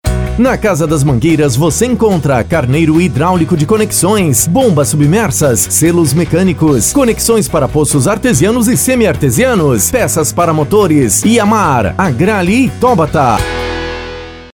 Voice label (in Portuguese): VOZ IMPACTO GRAVE vinheta spot chamada comercia; para loja de mangueira mangueiras